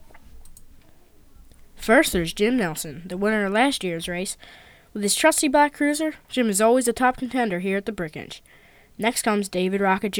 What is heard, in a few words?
anouncer; Brickshelf